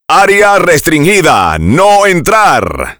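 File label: Area Restricted, Spanish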